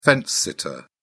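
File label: "fence-sitter", English, British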